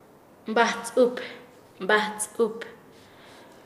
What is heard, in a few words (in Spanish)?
"cerca de cabuya", Nasa Yuwe; paez